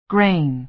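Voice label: "grain", American English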